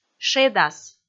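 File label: "шедас", Komi, female; kpv audio dict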